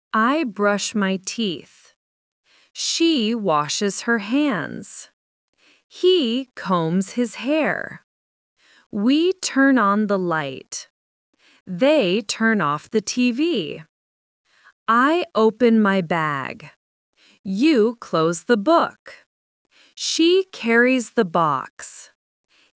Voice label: AI speech